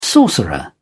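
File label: "sorcerer", British English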